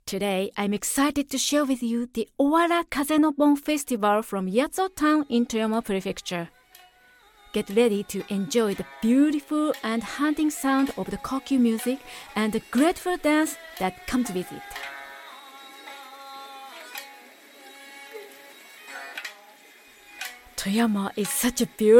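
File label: english radio imaging, Femelle; Imagerie radio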